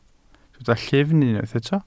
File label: "llyfn", Welsh